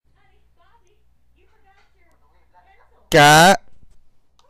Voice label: Tone 4 is high tone male